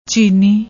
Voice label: "cheeni", Punjabi